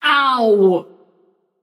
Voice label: ouch passion; chaac